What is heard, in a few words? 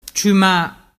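"ciuma", Vernazzese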